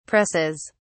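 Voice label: "presses", British English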